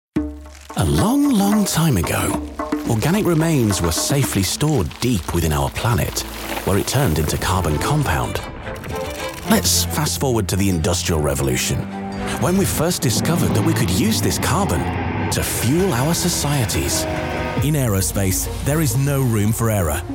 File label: corporate reel